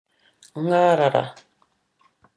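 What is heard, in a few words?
"ngarara", Māori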